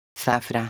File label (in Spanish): zafra, megafonias; megafonias exteriores